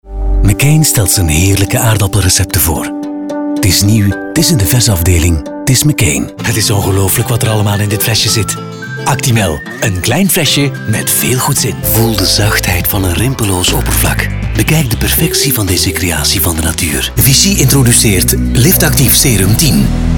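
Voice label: Belgian commercials, flemish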